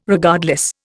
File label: "Regardless", Indian